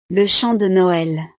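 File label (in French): "le chant de noel", French; El villancico; navidad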